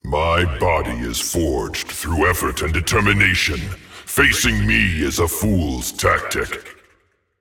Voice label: kill streak, voicepack; Smite; atlas

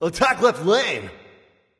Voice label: vox attack; smite; raijin; voicepack

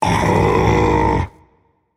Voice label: seriously rage; chaac

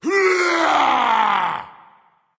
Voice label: battlecry, voice; smite; voicepack; ymir

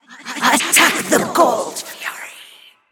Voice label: vox attack; smite; izanami

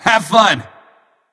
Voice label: vox emote; smite; ra; voicepack

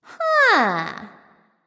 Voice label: thinking; smite; nemesis

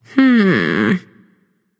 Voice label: thinking; smite; artemis

